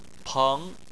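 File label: "peng" second tone, Chinese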